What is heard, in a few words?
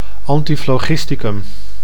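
"antiphlogisticum", Dutch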